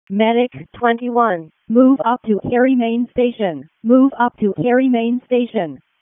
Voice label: WC EMS ALERT, p25

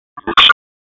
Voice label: Raleigh Police Northeast District Tac, p25; wake p25

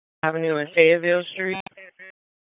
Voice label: Police Southeast District Disp, p25; Raleigh

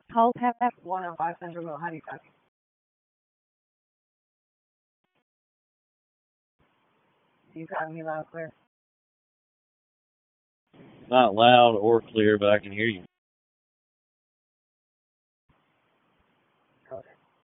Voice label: PS TAC, p25; wake p25

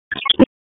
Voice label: Raleigh Police Northeast District Tac, p25

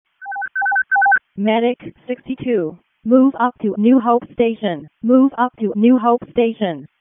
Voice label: EMS ALERT, p25

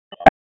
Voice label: Police Northeast District Tac 1, p25

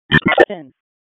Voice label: EMS ALERT, p25

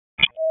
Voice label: RFD ALERT, p25

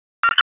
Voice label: Police North District Tac 1, p25; Raleigh; wake

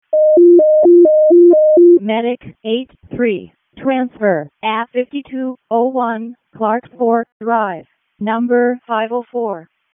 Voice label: EMS ALERT, p25; wake

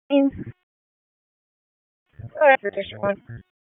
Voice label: PS TAC, p25

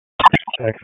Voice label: PS TAC, p25; wake

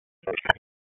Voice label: Raleigh Police Southwest District Tac, p25

